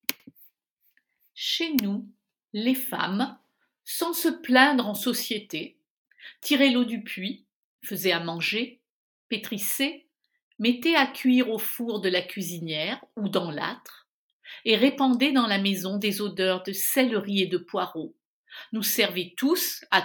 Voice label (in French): Texte en français